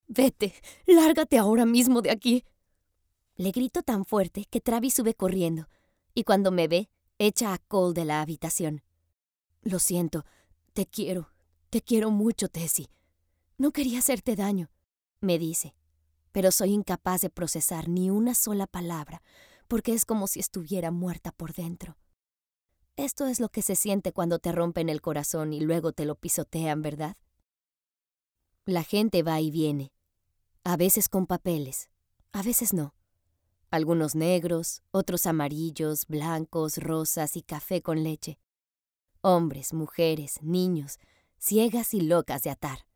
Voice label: Demo Teenager